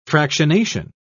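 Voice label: "fractionation", English, British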